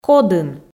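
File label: "кодын", Komi, female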